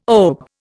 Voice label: "OBE", Indian